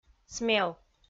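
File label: "смел", Komi-Zyrian, female; kpv audio dict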